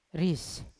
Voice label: "ris" I, Yawa